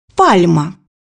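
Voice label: "palm", Russian; bukvar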